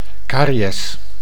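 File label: "caries", Dutch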